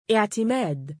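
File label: "2i3timaad" male, Arabic; ’i‘timād; イウティマード; Iitimaad、Itimad、Eitimad、Etimad; 頼ること、信頼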